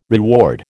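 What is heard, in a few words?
"reward", American English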